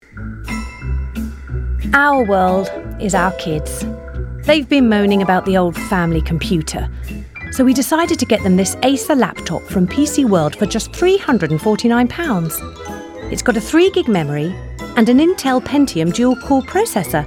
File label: natural